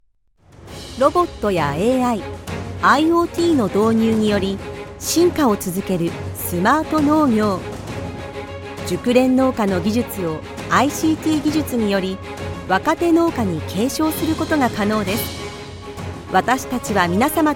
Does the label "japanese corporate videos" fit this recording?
Yes